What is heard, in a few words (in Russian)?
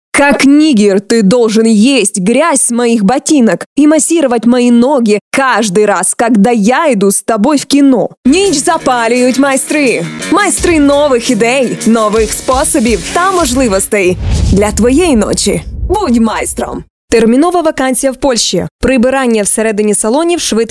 RU; Russian